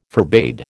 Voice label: "forbade", American